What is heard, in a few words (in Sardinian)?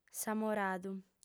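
"samoradu", female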